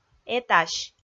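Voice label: "этась", Komi, female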